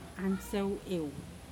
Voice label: "Now", Pingelapese